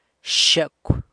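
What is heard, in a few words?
"xyecw", dog, San Lucas Quiaviní Zapotec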